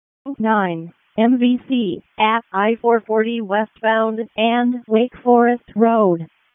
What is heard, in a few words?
EMS ALERT; wake